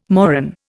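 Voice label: "moron", Indian English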